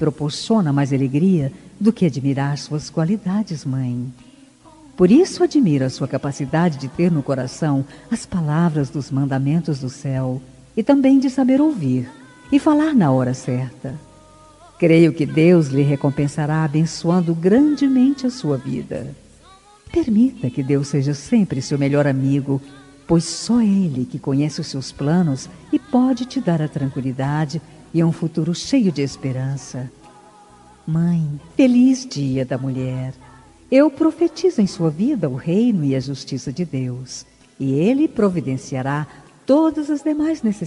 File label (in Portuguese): mae fem gospel, dia das mulheres para mae voz feminina; evangelica